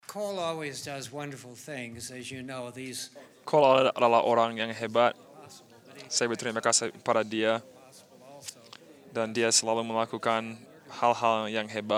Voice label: Indonesian; iclrs symposium 2009